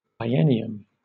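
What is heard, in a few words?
"biennium", British English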